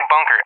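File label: "bunker", English; Target; DCS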